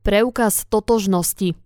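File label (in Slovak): "preukaz totoznosti", Slovak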